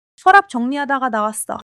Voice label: joy